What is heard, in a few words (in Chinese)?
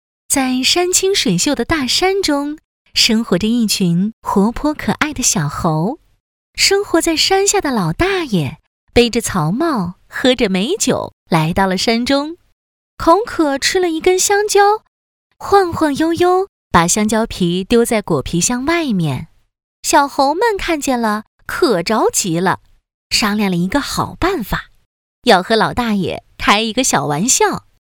女, 有声读物; 武汉小梅花艺术学校